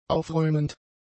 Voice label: "aufräumend", German; adjectives

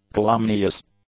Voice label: "calumnious", English; adjectives

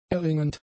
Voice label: "erringend", German; adjectives

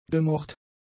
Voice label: "gemocht", German; adjectives

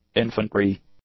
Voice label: "infantry", English; nouns

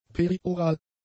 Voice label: "perioral", German; adjectives

